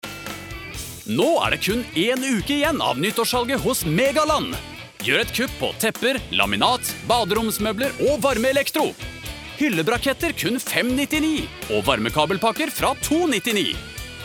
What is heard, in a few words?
no hard sell